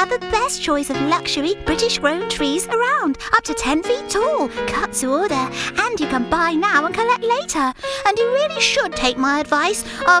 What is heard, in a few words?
christmas fairy